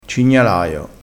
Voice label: "cignalaio", crespinese

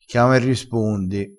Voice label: "chiama e rispondi", crespinese

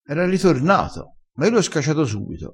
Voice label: "scacia", crespinese

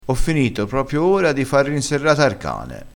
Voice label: "rinserrato", dialettale

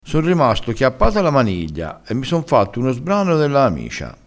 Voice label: "sbrano", crespinese

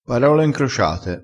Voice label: "parole ncrociate", crespinese

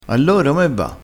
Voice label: "peggioro", crespinese, vocabolario dialettale

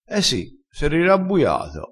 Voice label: "rabbuia", vocabolario dialettale

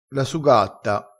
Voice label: "su", crespinese

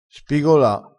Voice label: "spigola", crespinese

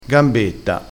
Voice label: "gambetta", crespinese

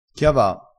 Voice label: "chiava", crespinese

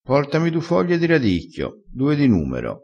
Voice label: "du", crespinese

